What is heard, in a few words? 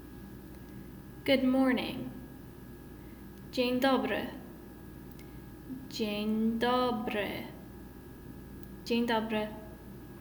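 Good morning, Polish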